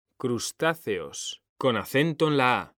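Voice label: "CRUSTÁCEOS", Spanish; mekanta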